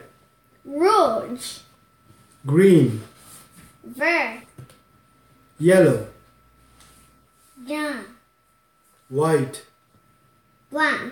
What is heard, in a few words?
Identifying & Speaking Colors in French